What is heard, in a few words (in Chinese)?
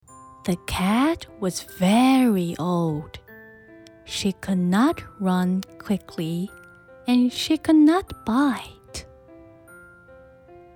女 英-慢速 妈妈幼儿睡前小故事